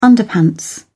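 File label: "underpants", English, British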